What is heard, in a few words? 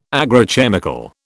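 "agrochemical", British English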